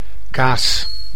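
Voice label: "kaas", Dutch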